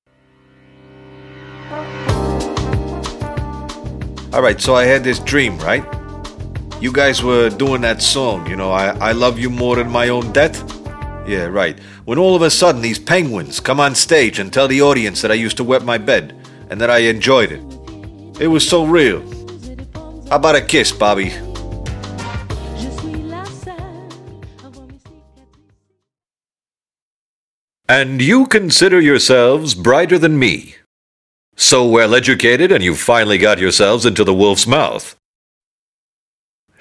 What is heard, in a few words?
CHARACTER